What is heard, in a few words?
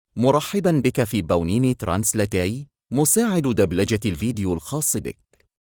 Male, ar-SA; HamedNeural